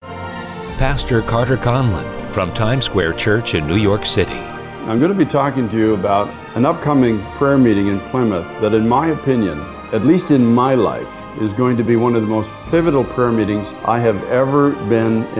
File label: Promo; Lord Forgive Us